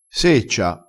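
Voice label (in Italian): "seccia", dialettale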